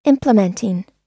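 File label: "implementing", US English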